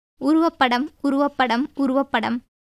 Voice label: Tamil